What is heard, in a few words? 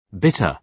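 "bitter", British English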